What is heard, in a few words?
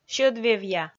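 "сьӧдвевъя", Komi, female; kpv audio dict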